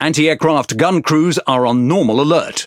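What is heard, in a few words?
Speech, British English; Consumable AAC normal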